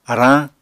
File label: "arañ", Apatani